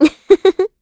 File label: Vox Happy kr; Hilda; kingsraid